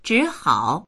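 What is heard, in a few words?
"zhi3hao3", Mandarin Chinese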